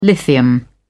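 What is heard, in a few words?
"lithium", British English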